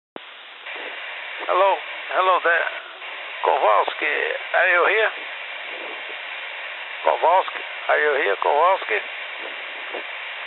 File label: Brazil English Speaker